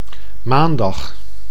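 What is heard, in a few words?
"maandag", Dutch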